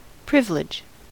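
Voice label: "privilege", American English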